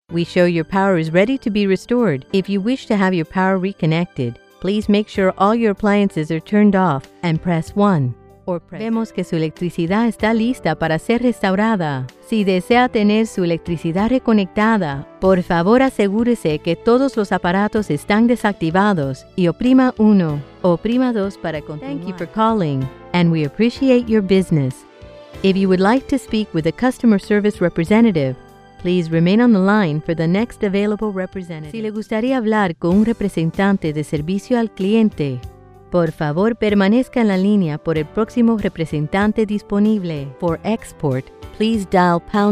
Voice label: Bilingual IVR